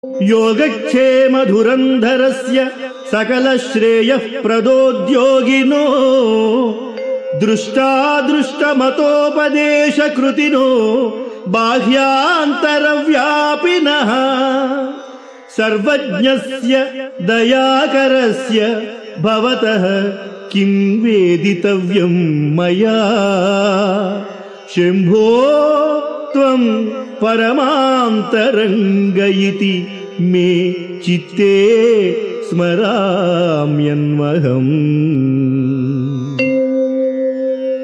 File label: Sanskrit; Sri Adi Sankaracharyas Sivananda Lahari; Adi Shankaracharya; श्रीशिवानन्दलहरी ३५